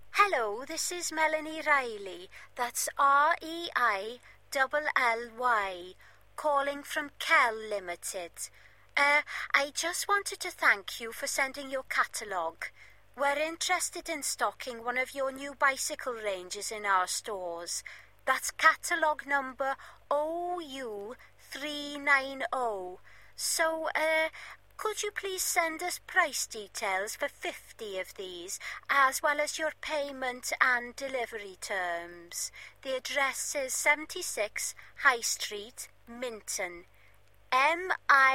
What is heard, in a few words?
Voice Message; english listening business